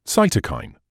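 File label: "cytokine", British English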